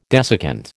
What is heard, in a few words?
"desiccant", American